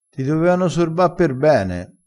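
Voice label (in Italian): "sorba", vocabolario dialettale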